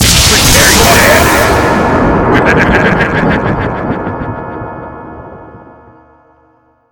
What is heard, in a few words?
rage; ff2; demonic wizard; lia